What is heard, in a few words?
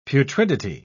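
"putridity", British English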